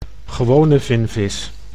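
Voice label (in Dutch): "gewone vinvis", Dutch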